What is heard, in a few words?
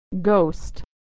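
"ghost", American English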